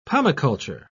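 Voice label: "pomiculture", British English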